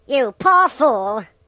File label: "Stupid", British; hedgewars